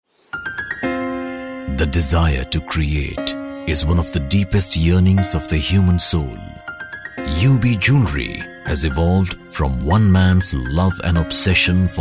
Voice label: AV English; Yoube Jewellery